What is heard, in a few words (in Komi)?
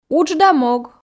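"удж да мог", Komi, female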